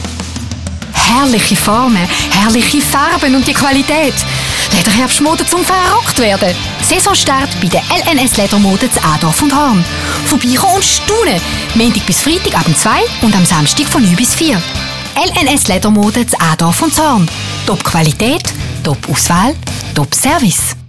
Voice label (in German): Ledermode Radio-Spot; Thurgau